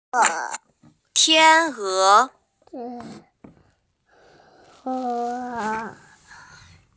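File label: "tian1e2", Mandarin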